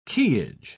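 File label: "quayage", British English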